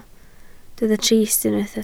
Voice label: "trist", Welsh